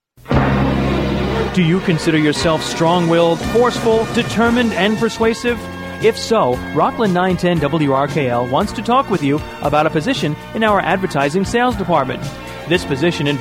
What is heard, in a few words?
TELEMARKETING AD; WRKL